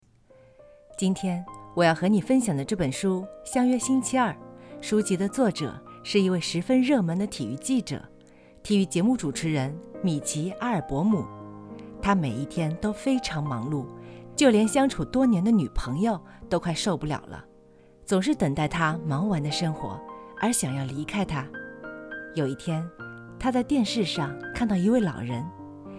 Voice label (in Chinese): 荐书类 电台